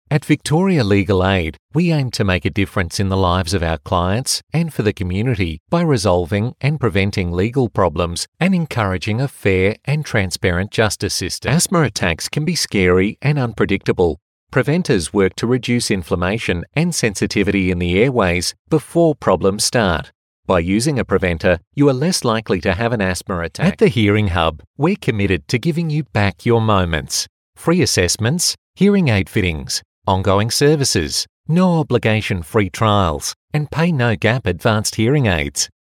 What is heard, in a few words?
Soft Sell